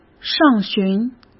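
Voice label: "上旬", Mandarin Chinese; chinese-flashcards; hsk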